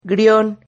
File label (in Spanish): "grion", Silabas